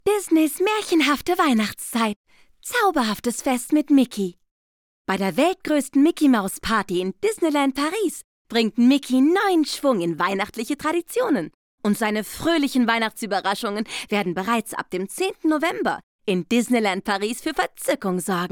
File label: female German commercial; disneylandr paris